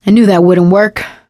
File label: bridge collapse, survivor voice; video game; source engine; left 4 dead 2; producer